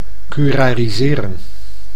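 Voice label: "curariseren", Dutch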